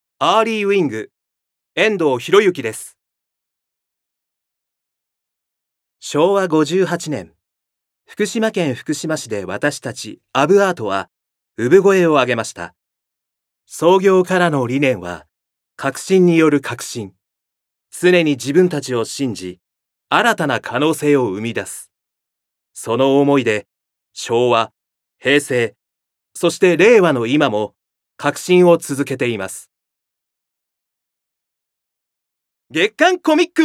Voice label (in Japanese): ナレーション